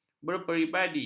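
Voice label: "berperibadi", Malay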